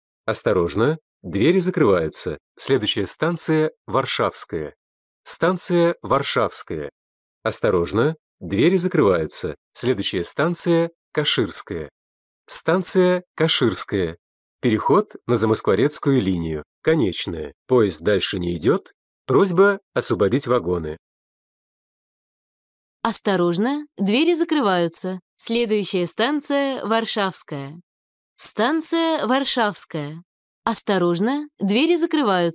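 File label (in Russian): диктор в московском метро Каховская линия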